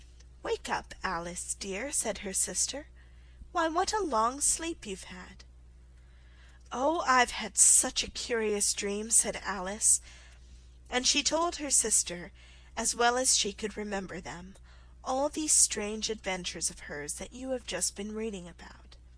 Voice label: alice in wonderland slow